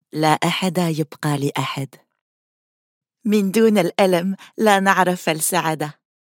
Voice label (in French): ARABE CLASSIQUE